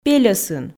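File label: "пельӧсын", Komi, female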